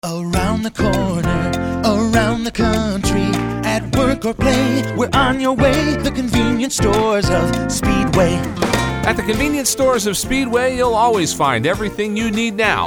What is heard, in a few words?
voiceovers